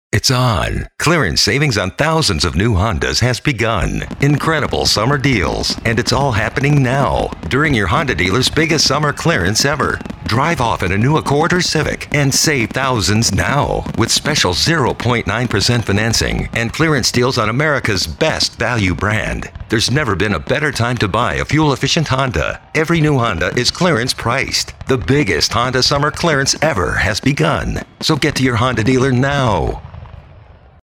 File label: AUTOMOTIVE VOICE OVER